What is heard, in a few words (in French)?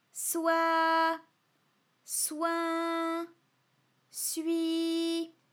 soi soin sui, vocal library, FRA